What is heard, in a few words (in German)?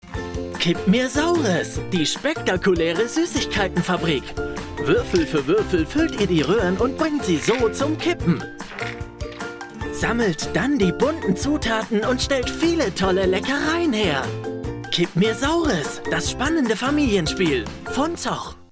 Werbung Web; Zoch Spiele